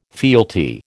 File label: "fealty", American